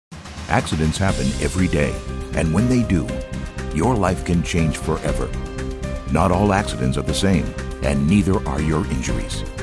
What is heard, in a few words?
Law Firm, voiceovers